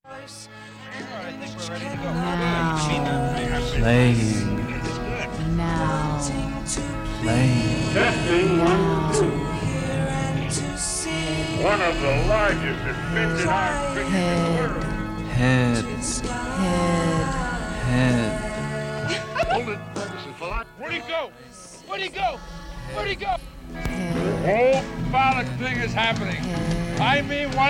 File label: Radio Commercials; 1968